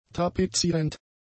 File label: "tapezierend", German; adjectives